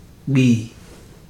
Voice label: "cut into", Ibibio

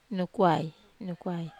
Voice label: "nukwai", Wamesa

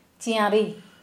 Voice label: Kera Mundari F arrow

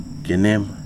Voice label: "we", Wahgi; lae unitech

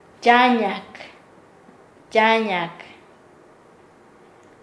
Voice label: Nasa Yuwe; asociarse con

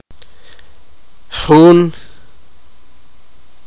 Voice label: sipakapense; one

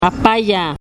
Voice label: "apayaa" brother older, wayuunaiki

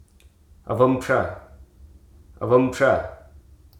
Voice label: "ladle", Hruso

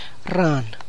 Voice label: "frog", tlacochahuaya

